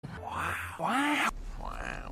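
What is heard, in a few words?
wow, male; Owen Wilson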